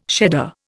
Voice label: "shedder", Indian

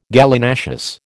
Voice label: "Gallinaceous", American

